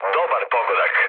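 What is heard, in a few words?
Serbian bomb success mood low, speech; War Thunder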